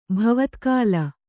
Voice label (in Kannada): "ಭವತ್ಕಾಲ", Kannada; bhavatkāla; noun; (gram.) the present time